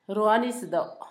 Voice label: playa, Zapoteco, Asunción Ocotlan; Sustantivos; INALI

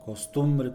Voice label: "costumbre", Mixe, hombre; Sociales

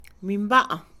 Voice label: párpado, Mixe, Mujer; Cuerpo; INALI

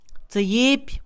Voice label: temblar, Mixe, Mujer; Cuerpo; INALI

